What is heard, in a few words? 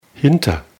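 "hinter", German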